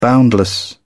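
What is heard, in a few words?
"boundless", British English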